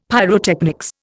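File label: "pyrotechnics", Indian English; Dictionary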